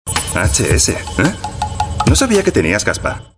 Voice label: European Spanish Commercial